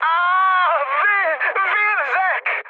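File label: Hungarian damaged pilot mood high, speech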